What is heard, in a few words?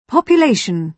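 "population", British English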